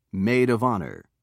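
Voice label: "maid of honor", British English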